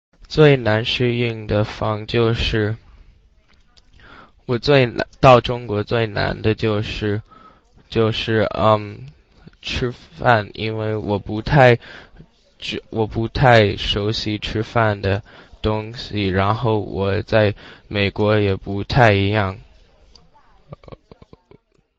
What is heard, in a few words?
sample chinese conversation